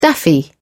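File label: "daffy", British English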